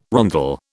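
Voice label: "rondel", British English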